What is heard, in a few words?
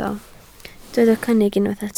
"cynnig", Welsh